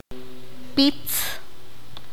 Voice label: "Pelota bola", Q'anjob'al